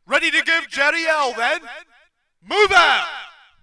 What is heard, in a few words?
brit start round